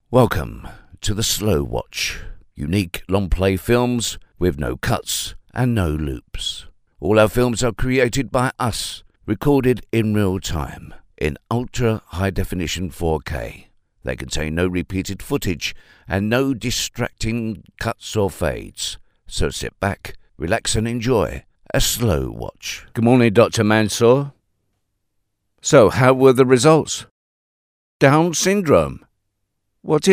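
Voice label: English male; lektoring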